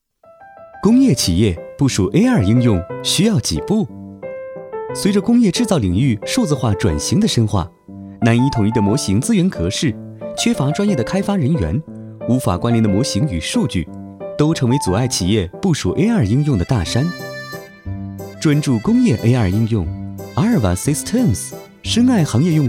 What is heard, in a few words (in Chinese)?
男 飞碟说